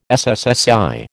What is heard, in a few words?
"SSSI", American